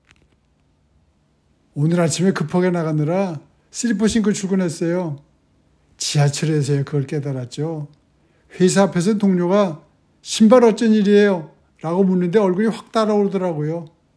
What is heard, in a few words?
Old Male Surprised, korean voice emotion